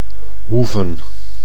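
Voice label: "hoeven", Dutch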